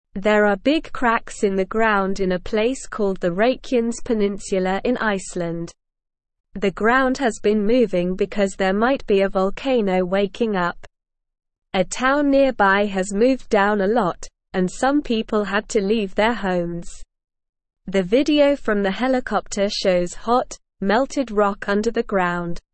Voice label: English Beginner SLOW Reading; Cracks in Ground Volcano Waking Up in Iceland

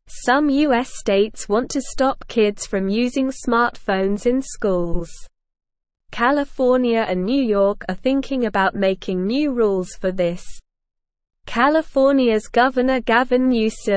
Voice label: English SLOW Reading

